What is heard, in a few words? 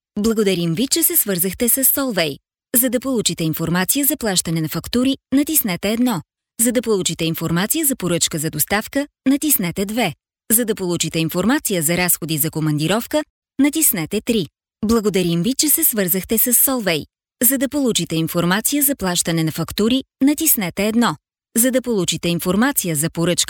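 Bulgarian, female, voice over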